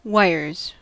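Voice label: "wires", American English; pronunciations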